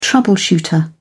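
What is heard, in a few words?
"troubleshooter", British English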